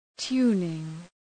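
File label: "tuning", English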